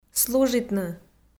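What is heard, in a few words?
"служитны", Komi-Zyrian, female; kpv audio dict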